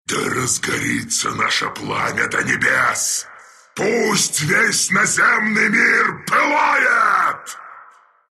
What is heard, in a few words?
win, ru